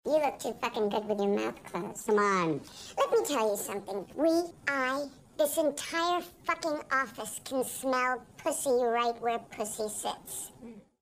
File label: Child With F Word